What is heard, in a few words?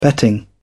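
"betting", British English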